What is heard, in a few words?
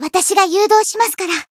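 Voice limit break, Japanese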